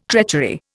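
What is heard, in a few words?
"treachery", Indian English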